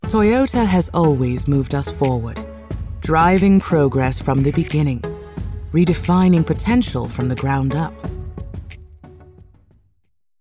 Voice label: young car retail regal posh fancy confident elegant fashionable knowing